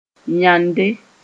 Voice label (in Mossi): "yãnde", moore